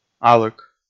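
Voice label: "алык", Komi, male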